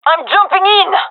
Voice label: attacking, gendarmerie fem

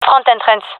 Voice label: loc front, gendarmerie fem; vo; agents

